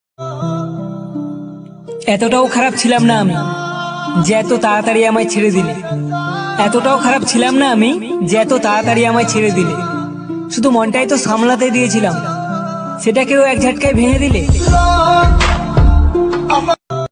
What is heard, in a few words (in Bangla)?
bengali shayari very very sad bengali shayari